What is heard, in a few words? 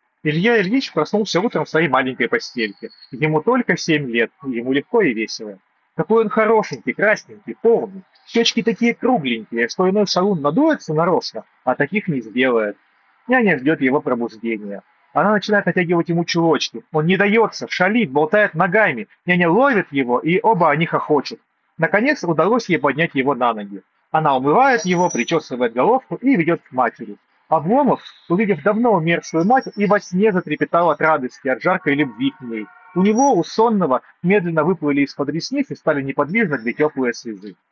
Mic noise; Запись с микрофона гарнитуры; soundpeats air5 pro